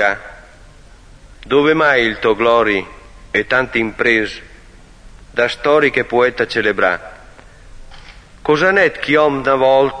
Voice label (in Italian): o povra frara (poesia); FE; poesia conte francesco aventi